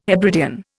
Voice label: "Hebridean", Indian